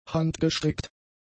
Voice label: "handgestrickt", German; adjectives

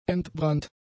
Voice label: "entbrannt", German; adjectives

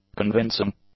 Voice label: "convincing", English; adjectives

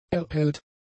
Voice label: "erhellt", German; adjectives

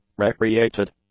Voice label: "recreated", English; adjectives

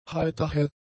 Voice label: "heiterhell", German; adjectives

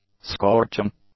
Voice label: "scorching", English; adjectives

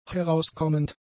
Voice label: "herauskommend", German; adjectives

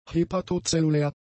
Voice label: "hepatozellulär", German; adjectives

